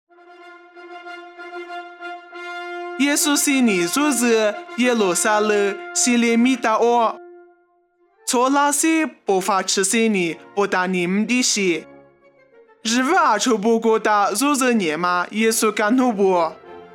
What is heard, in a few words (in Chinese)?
凉山彝语; 以色列橄榄山; nuosu